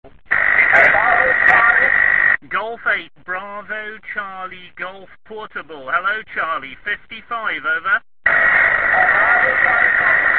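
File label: amateur radio contact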